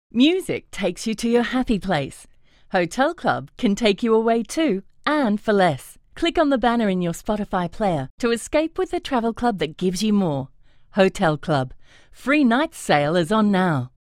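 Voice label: English Australian radio spot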